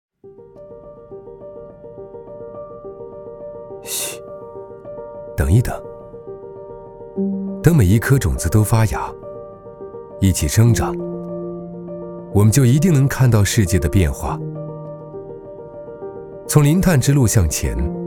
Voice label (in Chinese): 高端 自然; 零碳之路